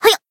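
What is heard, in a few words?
attack, Japanese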